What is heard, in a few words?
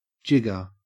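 "jigger", Australian English; pronunciations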